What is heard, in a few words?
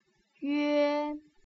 "yue", putonghua